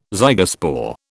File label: "zygospore", British